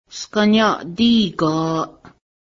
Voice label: heron, Cayuga; sganyaˀdí:ga:ˀ

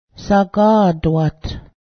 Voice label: miss, Cayuga; sagá:dwaht; miss (v.)

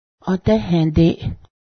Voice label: fence, Cayuga; adęhę́deˀ

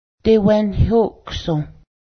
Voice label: lightning, Cayuga; dewęni̱hóksǫh

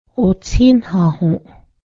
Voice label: gartered dance, Cayuga; otsínhahǫˀ

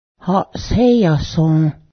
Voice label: call someones name, Cayuga; haˀseyásǫ

